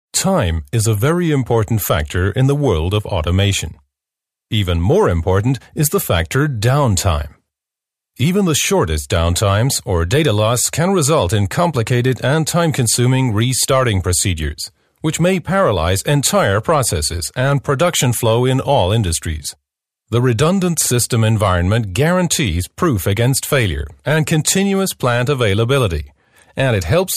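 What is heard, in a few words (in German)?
US English Kommentar Freundlich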